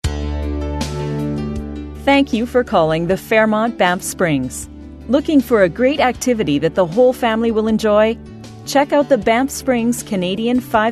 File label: OnHold